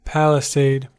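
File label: "palisade", American English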